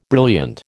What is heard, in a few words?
"brilliant", American